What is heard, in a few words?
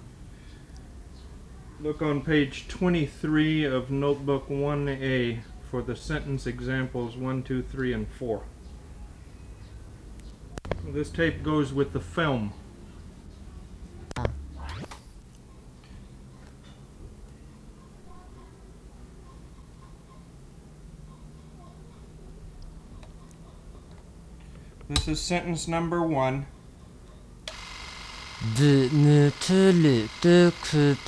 Lisu